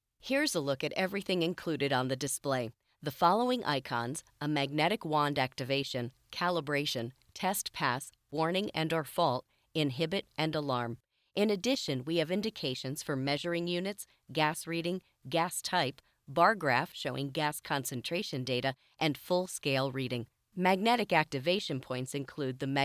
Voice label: Tech Industrial, voiceover